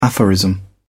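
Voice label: "aphorism", British English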